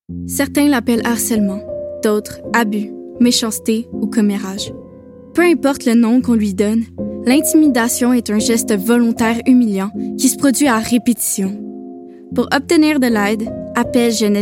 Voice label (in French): vocal Jeunesse; J Ecoute